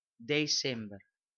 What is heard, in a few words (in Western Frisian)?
"desimber" december